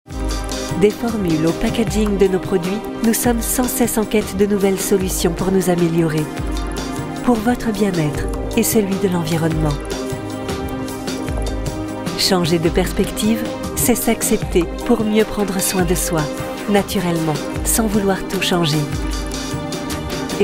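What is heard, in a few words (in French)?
Film MedSpa Lab, voix off extraits anglais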